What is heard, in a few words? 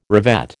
"revet", American